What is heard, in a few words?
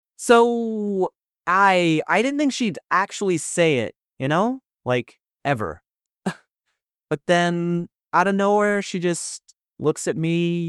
Contemplative; Text-to-Speech; Thoughtful, measured tone; Orpheus-FastAPI; Zac Contemplative Sample